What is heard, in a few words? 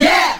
"yeah", group of men